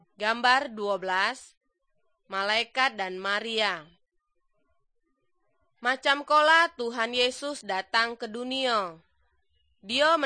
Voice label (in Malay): good news malay jambi